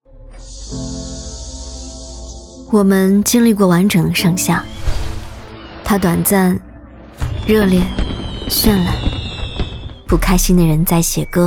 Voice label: 女 自然知性; 自由; 文旅配音

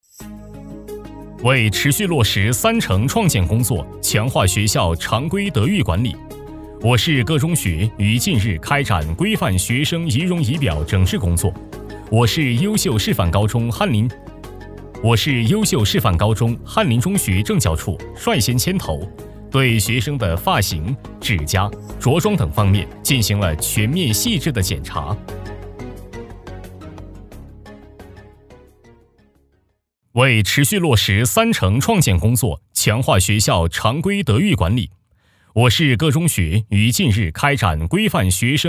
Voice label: 男国 新闻; 男声新闻; 为持续落实三城创建工作

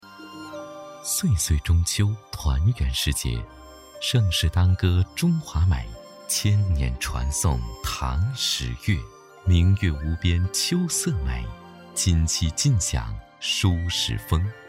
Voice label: 男 节日 低沉讲述 中秋节

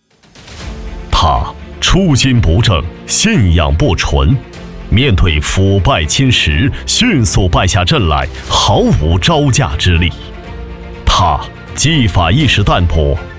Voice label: 男 警示片; 违纪违法案剖析; 反腐

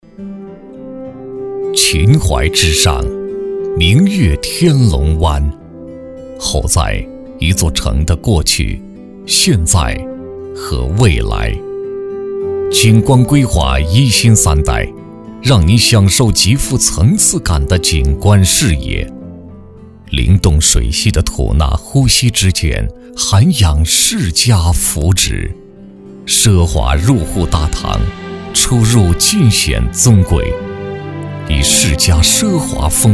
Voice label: 男 国 大音 地产广告（深沉 磁性）

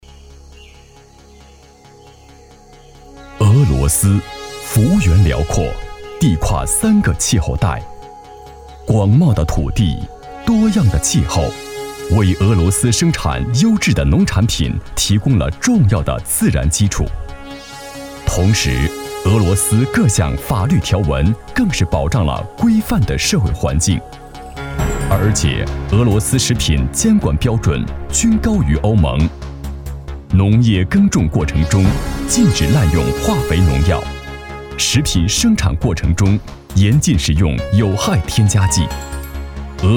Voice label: 男 大音 企业宣传片 国际范

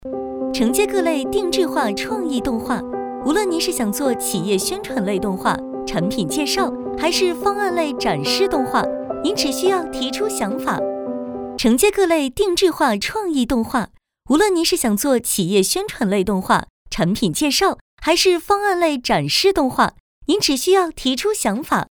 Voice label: 女 大音; 迪维数字科技是动画定制类公司

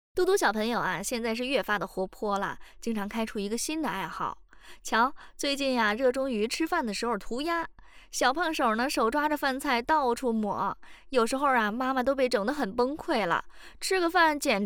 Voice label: 北京方言 女 小朋友; 方言配音

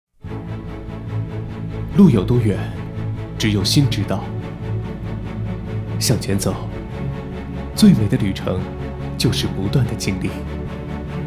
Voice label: 男 国 大音 广告样音 年轻知性

